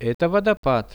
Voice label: "eto vodopad", Russian; Это водопад; nature; priroda; RUSSIAN VISUAL DICTIONARY

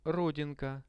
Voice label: "rodinka", Russian; родинка; Это родинка.; visual dictionary

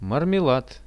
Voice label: marmelad, Russian; products

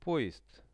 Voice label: "poezd", Russian; поезд; transport; Что это? Это поезд.; visual-dictionary

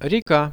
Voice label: "reka", Russian; река; nature; priroda; RUSSIAN VISUAL DICTIONARY

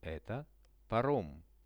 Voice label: "eto parom", Russian; Это паром.; transport; RUSSIAN VISUAL DICTIONARY

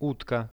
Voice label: "utka" Russian; утка; birds; RUSSIAN VISUAL DICTIONARY

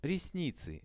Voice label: "resnicy", Russian; ресницы

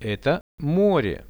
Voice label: "eto more", Russian; Это море; nature; priroda; RUSSIAN VISUAL DICTIONARY

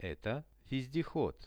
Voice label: "eto vezdehod", Russian; transport; visual-dictionary

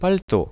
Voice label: "palto", Russian; пальто; odezhda; RUSSIAN VISUAL DICTIONARY

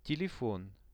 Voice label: "telefon", Russian; телефон; veshi; Что это? Это телефон.

